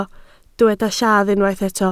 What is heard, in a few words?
"lladd", Welsh